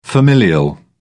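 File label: "familial", English, British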